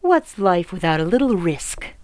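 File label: female enemy; NWNDS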